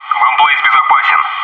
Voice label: "bombsite secure", bot

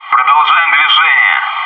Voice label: "hang on i heard something", bot

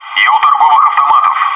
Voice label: vending machines, bot

